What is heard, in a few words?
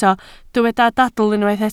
"dadl", welsh